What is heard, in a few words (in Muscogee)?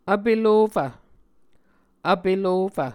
"vpelofv", muscogee